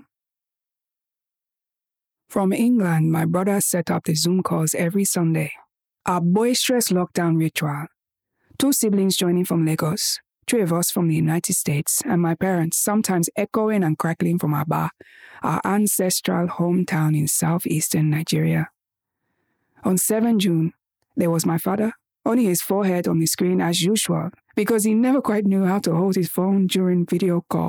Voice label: Notes On Grief Bookread

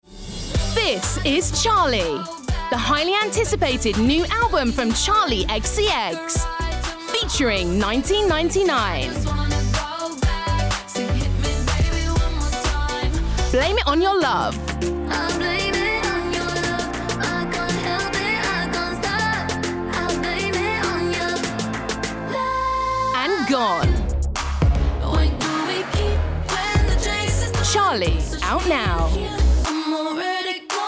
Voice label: Music Promo

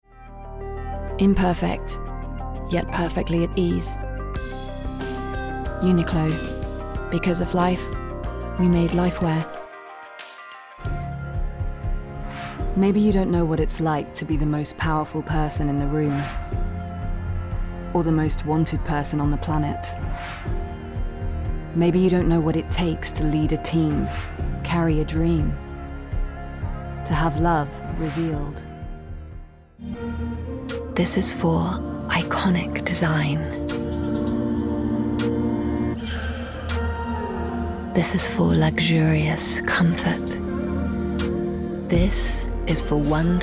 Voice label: Commercials Montage

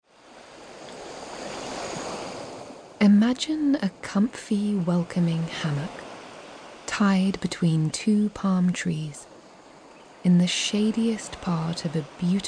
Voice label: Sleep Guide; Penguin’s Sleep Tales

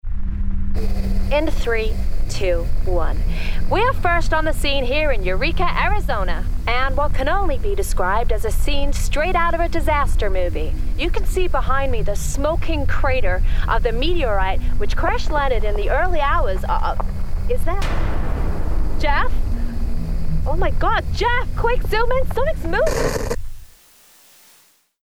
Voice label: Gaming Character Reporter US; Reporter – American

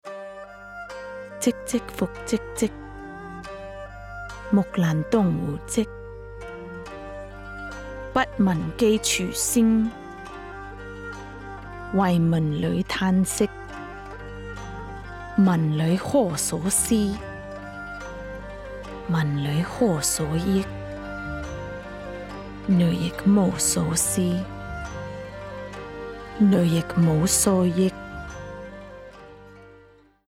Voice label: Cantonese Poem; The Ballad of Mulan

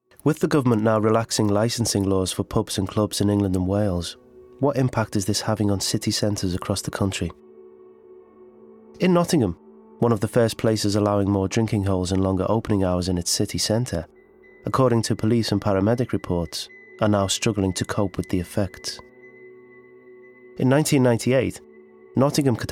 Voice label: Narra; Nottingham – Documentary

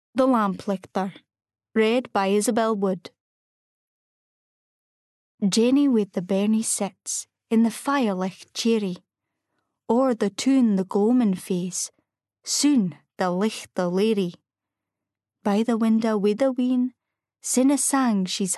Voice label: Scottish Doric Poem; Scottish dialect (Doric) Poem

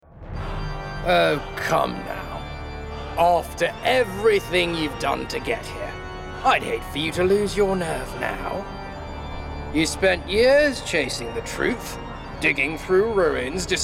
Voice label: Video Game Theatrical Villain

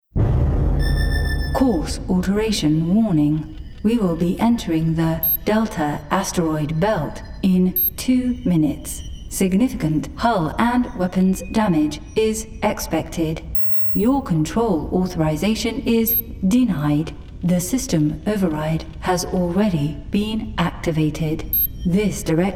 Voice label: Gaming Ships Computer RP

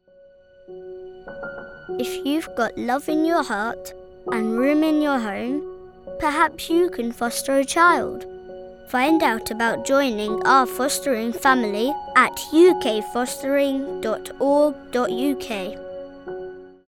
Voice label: The Soft Lullaby; Fostering

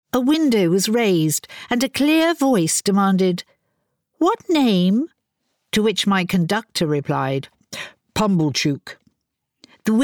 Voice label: Bookread; Great Expectations

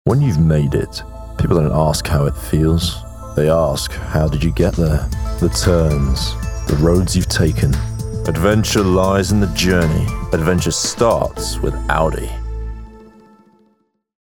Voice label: Commercial; Audi

